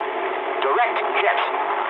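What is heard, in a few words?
English bomb success mood low; War Thunder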